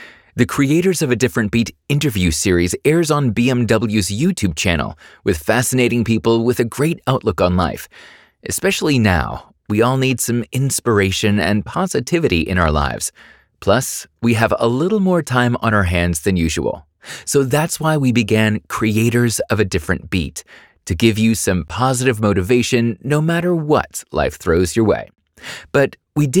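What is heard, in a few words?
English USA male, podcast; Intro; Creators of a different beat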